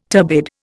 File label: "turbid", Indian